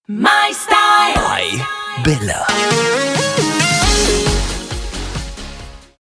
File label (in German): JINGLES CLAIMS